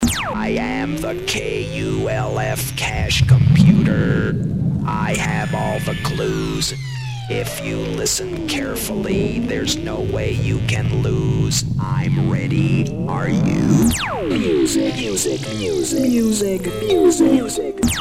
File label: Radio; Rádio Jovem Pan Banana Power Anos 70